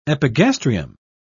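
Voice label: "epigastrium", British English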